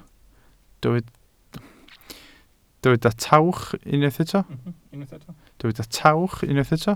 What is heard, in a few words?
"tawch", Welsh